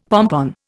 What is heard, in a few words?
"pompon", Indian; Dictionary